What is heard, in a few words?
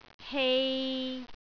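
"hei3", Cantonese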